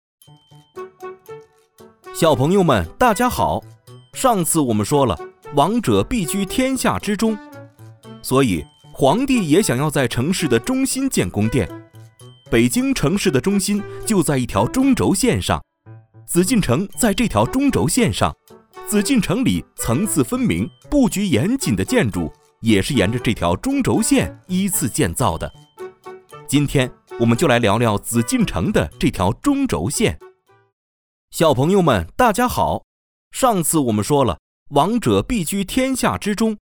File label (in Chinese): 男 专题 试音; 中轴线